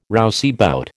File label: "rouseabout", American